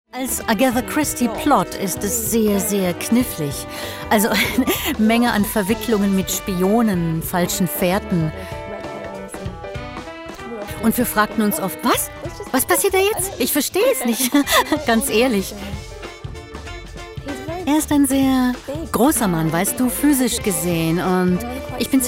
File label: dubbing documentary VO; jessica raine